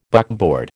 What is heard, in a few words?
"buckboard", American